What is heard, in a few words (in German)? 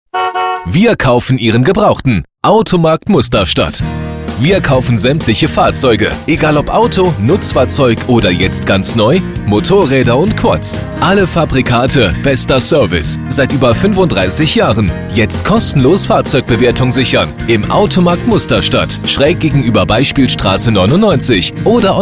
KFZ Autohaendler serioes